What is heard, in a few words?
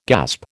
"gasp", American